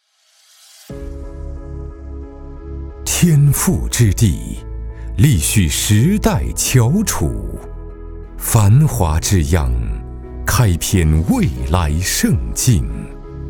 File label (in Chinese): 广告·地产·磁性; 天赋之地